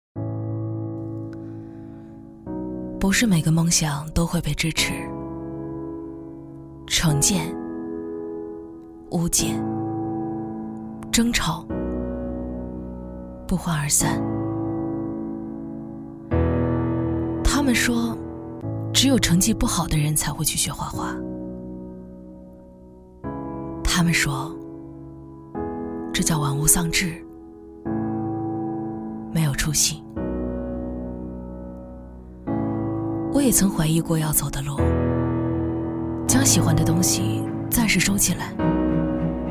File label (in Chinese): 女 旁白 走心 画室品宣片; 孪生画室品宣片